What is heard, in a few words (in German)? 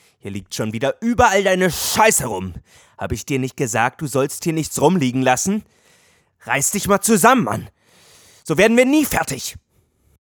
Wuetend